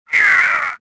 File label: choke; swamp